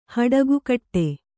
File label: "ಹಡಗುಕಟ್ಟೆ", Kannada